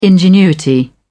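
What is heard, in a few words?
"ingenuity", British English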